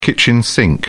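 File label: "kitchen sink", British English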